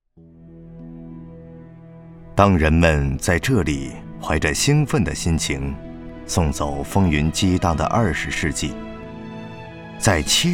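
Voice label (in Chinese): 男国大音-议论型纪录片; 走进新时代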